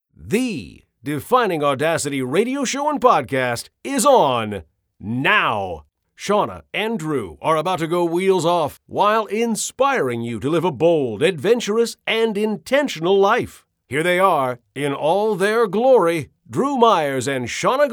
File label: Promos